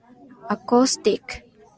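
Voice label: "acoustic", JPN, female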